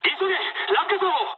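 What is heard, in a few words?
bailout mood high, speech japanese; War Thunder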